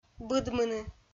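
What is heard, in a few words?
"быдмыны", Komi-Zyrian, female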